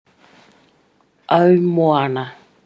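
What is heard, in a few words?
"aumoana", Māori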